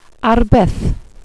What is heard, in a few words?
"arbeth", welsh pronouciations; Wales/welsh names